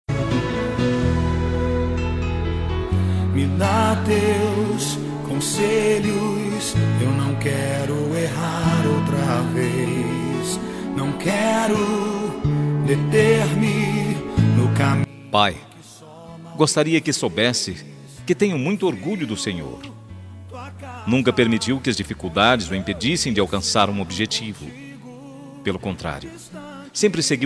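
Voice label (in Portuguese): Aniversário Evangélico para Pai Masculino, telemensagem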